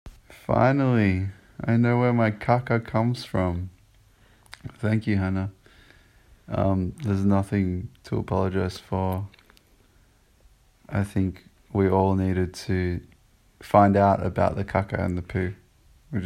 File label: voice message